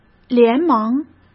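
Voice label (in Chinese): "连忙", Mandarin Chinese; hsk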